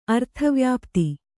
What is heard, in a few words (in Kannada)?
"ಅರ್ಥವ್ಯಾಪ್ತಿ", Kannada